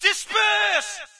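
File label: British displace; player; Source Engine; dod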